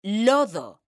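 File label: "lodo", Spanish, female; mekanta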